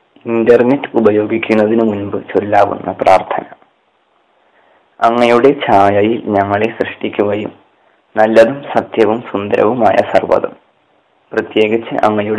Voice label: prayer malayalam